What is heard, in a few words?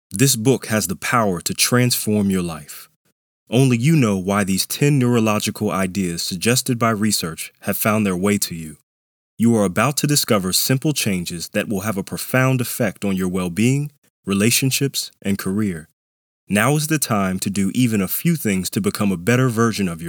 Audiobook; In The Zone